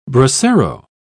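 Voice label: "bracero", English, British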